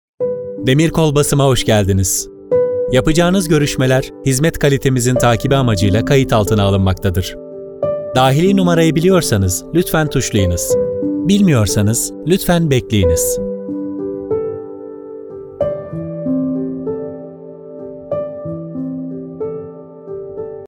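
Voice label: turkish interactive voice response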